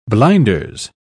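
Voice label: "blinders", British English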